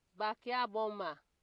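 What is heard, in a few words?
"backyabomber", Nigerian Pidgin, female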